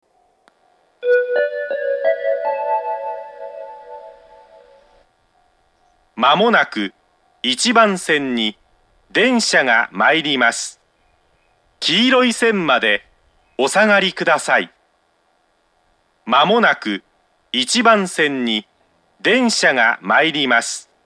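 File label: platform 3 approaching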